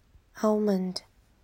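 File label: "almond", Taiwan, female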